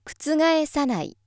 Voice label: female